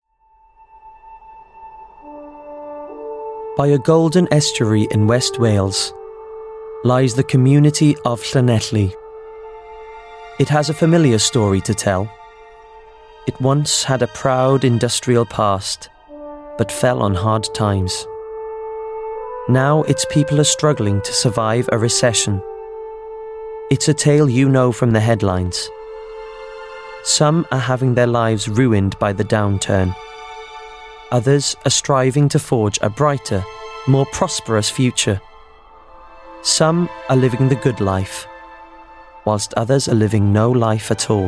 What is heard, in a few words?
Documentary